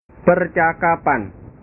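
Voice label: "percakapan", Indonesian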